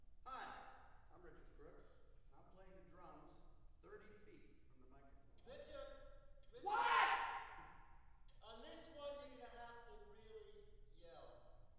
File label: Percussion Imaging Tests, Drum 30 Feet, Live Studio: Announcement